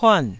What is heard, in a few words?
"puan4", taiwanese